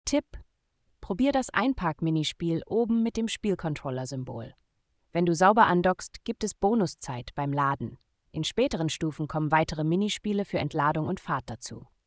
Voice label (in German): minigame hint, voice de; logistik